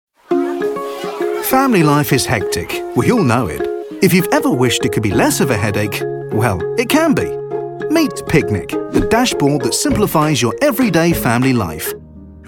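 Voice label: British English, Male 30 40 years Medium VOICEOVER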